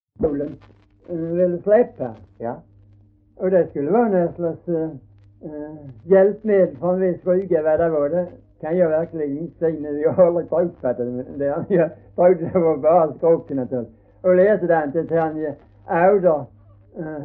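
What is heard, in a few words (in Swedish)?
Hörja Skåne, dialekter; Folkminnen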